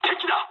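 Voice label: Japanese enemy detected mood low; War Thunder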